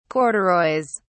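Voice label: "corduroys", British English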